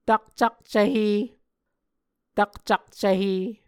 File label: "takcakcvhe", Muscogee